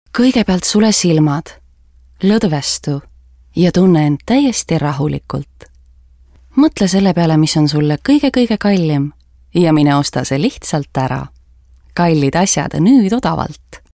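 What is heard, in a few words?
estonian, female, informative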